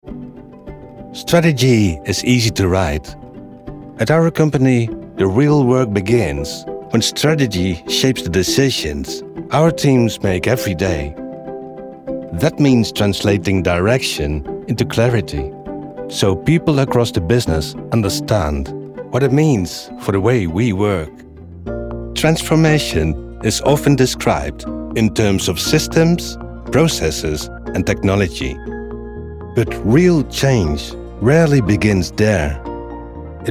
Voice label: Corporate